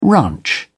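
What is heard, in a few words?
"ranch", British English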